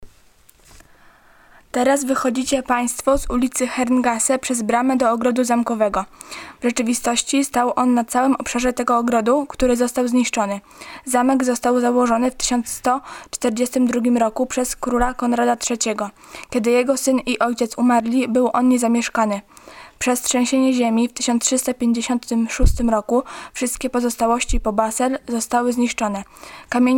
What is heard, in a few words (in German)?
Burggarten polnisch